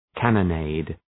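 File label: "cannonade", English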